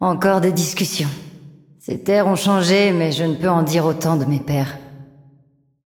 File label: fr FR Intro, VO; Yunara; bloom minigame